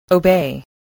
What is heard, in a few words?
"obey", voice, spelling